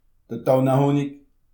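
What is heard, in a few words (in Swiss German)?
"tanne", Alsatian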